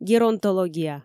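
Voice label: "геронтология", Komi, female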